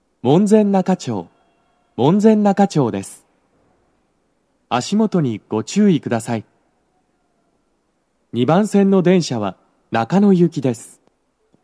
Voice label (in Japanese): 到着放送, 男声, BOSE天井型での収録です。; 2番線 中野・三鷹方面; 放送は「中野行」です。